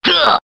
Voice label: "gah", cartoon; ooh ahh

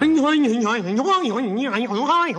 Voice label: faux chinese, gibbrish; Voice

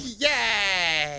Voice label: "yeah" cartoon, voice; ooh ahh

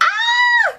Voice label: "oh" girl ow

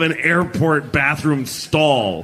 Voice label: airport bathroom stall

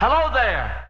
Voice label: hello there male; Voice; expletives

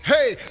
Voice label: "hey", male

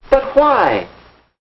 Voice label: "but why", male

